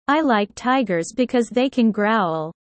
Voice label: low